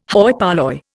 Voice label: "hoi polloi", Indian

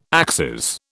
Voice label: "axes", British

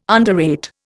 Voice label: "underrate", Indian

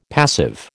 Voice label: "passive", American

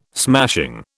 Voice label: "smashing", British

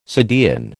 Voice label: "subdean", American

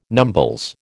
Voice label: "numbles", American

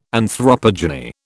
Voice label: "anthropogeny", British

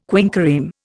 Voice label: "quinquereme", Indian

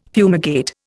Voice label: "fumigate", Indian

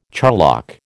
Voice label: "charlock", American

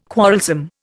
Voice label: "quarrelsome", Indian

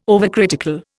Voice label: "overcritical", Indian

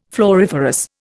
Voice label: "floriferous", Indian

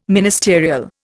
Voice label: "ministerial", Indian

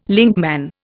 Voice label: "linkman", Indian

